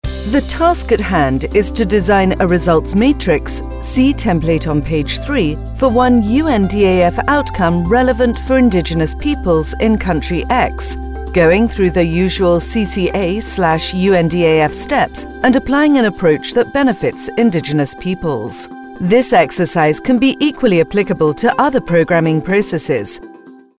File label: E-Learning, british voice; UNDA